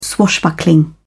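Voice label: "swashbuckling", British English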